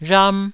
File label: "rham1", Taiwanese